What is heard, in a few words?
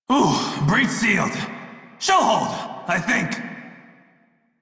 Crew Fix Breach Male en; Dialog; Warframe; Railjack Crew; Red Veil